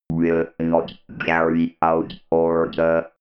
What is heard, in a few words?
robot voice will not carry out order